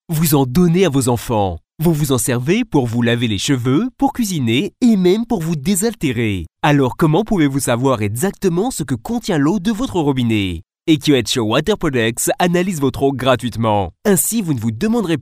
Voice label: french male commercial